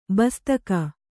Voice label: "ಬಸ್ತಕ", kannada